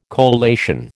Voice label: "collation", American